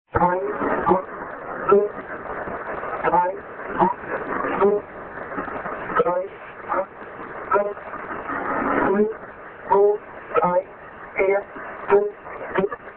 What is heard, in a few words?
Counting Station Variant, numbers stations